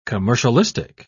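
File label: "commercialistic", English, British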